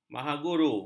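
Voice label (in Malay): "mahaguru", Malay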